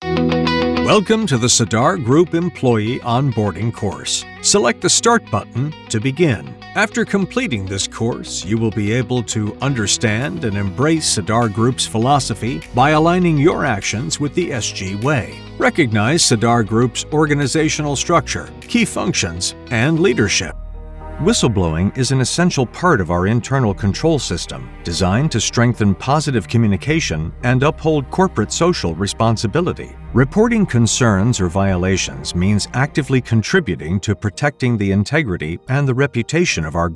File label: Elearning